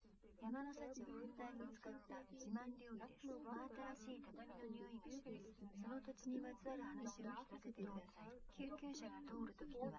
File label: female liverec 130ms 5cm sim; SiSEC08; SiSEC underdetermined